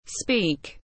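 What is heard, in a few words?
"Speak", English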